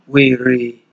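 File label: "were", Nigerian Pidgin, male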